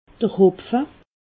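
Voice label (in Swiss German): "hopfe", Alsatian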